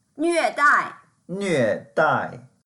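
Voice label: "nve4dai4", Mandarin Chinese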